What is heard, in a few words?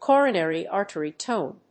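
"coronary artery tone", English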